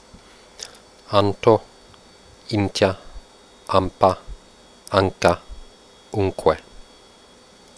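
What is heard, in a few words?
quenya voiceless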